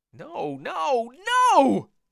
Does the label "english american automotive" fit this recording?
No